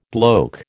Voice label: "bloke", American